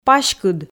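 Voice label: "паськыд", Komi, female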